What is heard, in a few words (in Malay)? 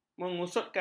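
"mengusutkan", Malay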